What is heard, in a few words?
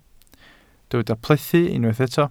"plethu", Welsh